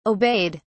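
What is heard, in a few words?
"obeyed", British English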